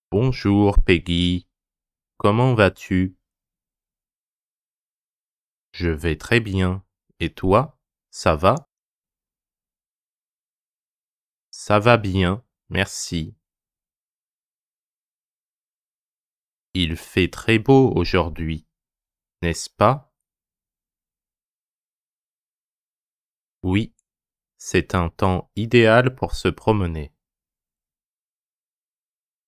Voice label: Conversation slow